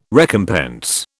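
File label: "recompense", British English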